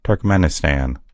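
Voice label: "turkmenistan", English; gcompris; geography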